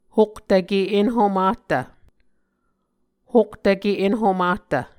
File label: "hoktvke enhomahtv", Muscogee; Mvskoke Words with Voicing Consonants; A Dictionary of Creek/Muskogee; SIL International; Onvkv Ohhvyayices